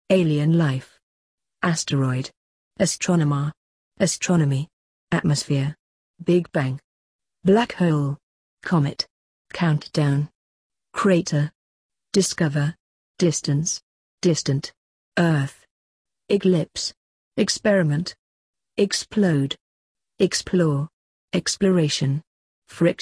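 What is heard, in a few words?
British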